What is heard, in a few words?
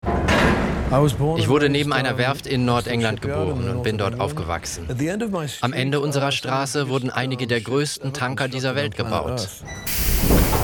VOICE OVER; LOFT VOICES